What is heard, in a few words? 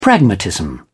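"pragmatism", English, British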